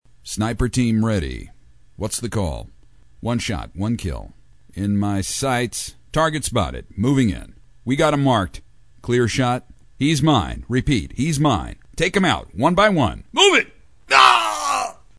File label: Sniper Team Leader, Real & Deliberate; animation